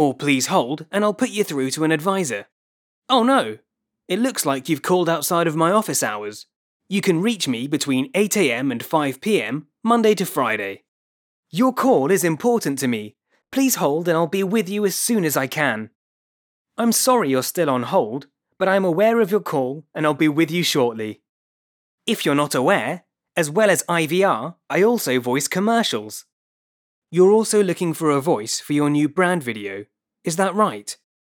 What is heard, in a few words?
ivr reel